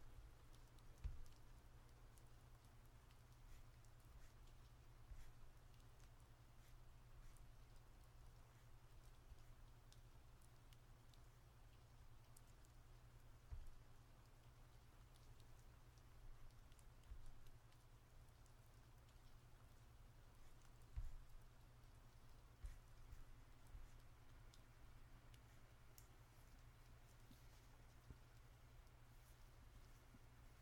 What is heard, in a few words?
Sleep Aid Knitting on a Rainy Night, F4A